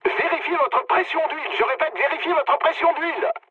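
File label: damaged oil, mood medium, French; War Thunder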